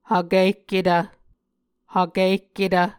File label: "hvkihketv", Muscogee; Mvskoke Words with Voicing Consonants; A Dictionary of Creek/Muskogee; SIL International; Onvkv Ohhvyayices